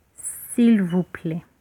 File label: please, Lingala